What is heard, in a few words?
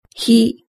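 "hi", Japanese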